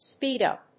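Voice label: "speedup", English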